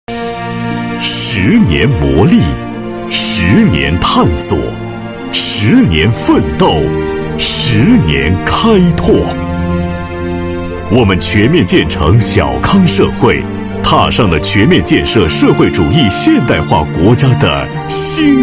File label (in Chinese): 男 宣传片 大气浑厚